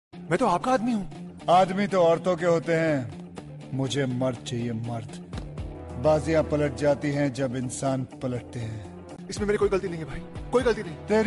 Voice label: attitude dialogue; akshay kumar